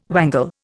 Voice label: "wangle", Indian